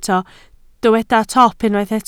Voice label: "top", welsh

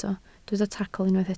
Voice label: "tacl", Welsh

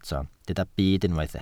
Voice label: "byd", Welsh

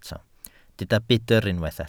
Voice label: "budr", Welsh

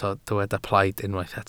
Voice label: "plaid", Welsh

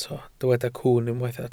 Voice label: "cwwn", Welsh

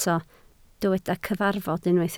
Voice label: "cyfarfod", Welsh

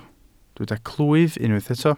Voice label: "clwyf", Welsh

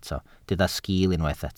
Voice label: "sgiil", Welsh

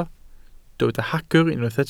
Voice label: "hagr", Welsh

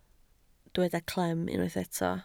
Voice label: "clem", Welsh

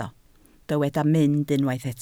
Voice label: "mynd", Welsh

